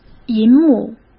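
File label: "银幕", Mandarin Chinese; chinese-flashcards; hsk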